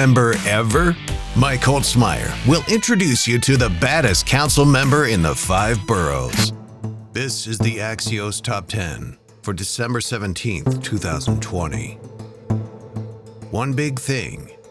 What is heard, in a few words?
TV News